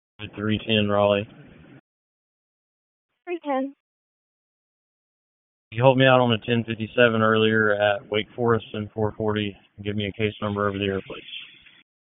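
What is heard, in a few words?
Police Northeast District Dispatch, p25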